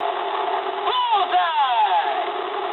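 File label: English bomb success mood med; speech; War Thunder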